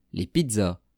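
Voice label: "les pizzas", French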